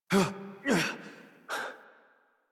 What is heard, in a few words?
Horse Mount Adult; Warframe; Duviri